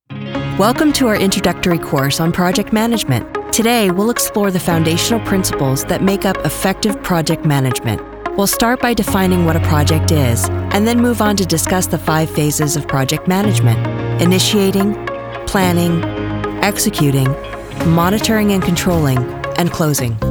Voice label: Narration